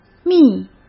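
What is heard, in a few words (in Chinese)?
"密", Mandarin Chinese; chinese-flashcards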